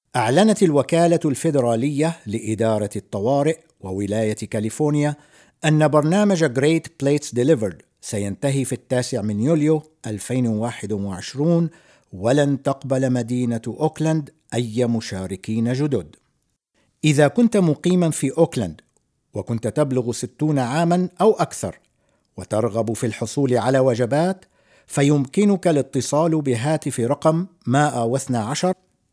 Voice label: Arabic M